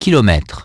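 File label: "kilometers", English; iOS 4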